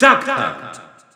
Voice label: French Announcer; Duck Hunt; SSBU